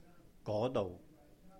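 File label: "嗰度", Cantonese; Over there; there (that place); gó-douh; go2 dou6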